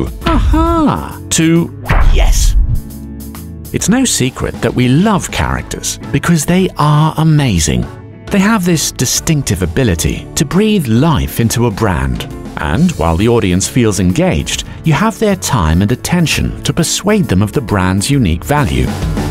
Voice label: UK English animation lighter friendly

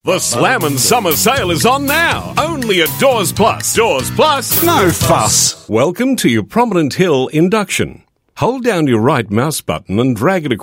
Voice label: AU English, Australian, Male

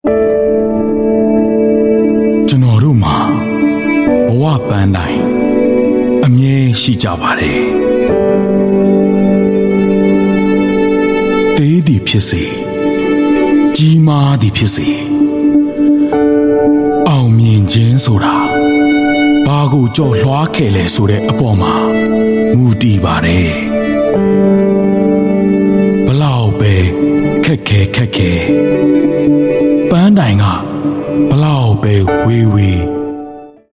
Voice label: Burmese Male TVC

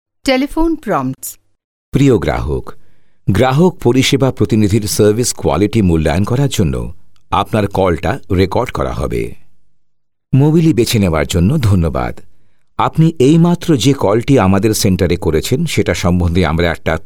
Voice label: Bengali Male; India

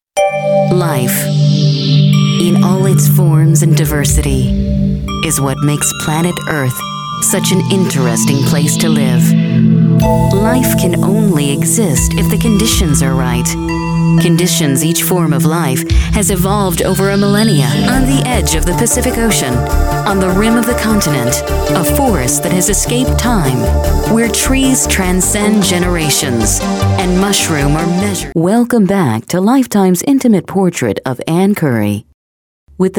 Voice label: US English Narration, English US Female

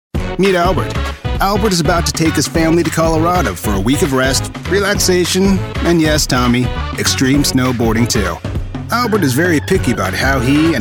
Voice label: US English Explainer

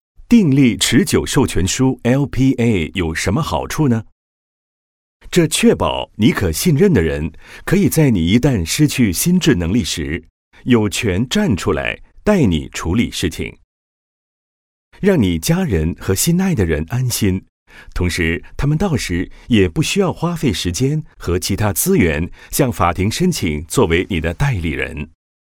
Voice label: Mandarin sample, China male

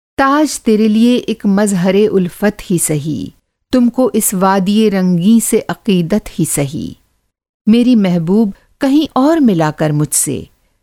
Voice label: Urdu, Female; India

